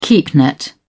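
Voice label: "keepnet", British English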